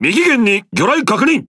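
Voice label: Speech Alarms Torpedo Right, Japanese; World Of Warships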